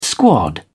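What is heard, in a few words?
"squad", English, British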